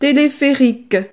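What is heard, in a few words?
"telepherique", French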